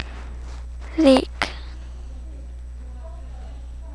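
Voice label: "lick", spoken word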